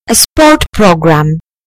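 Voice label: "sport programme", British English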